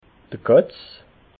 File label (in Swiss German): "katz", alsace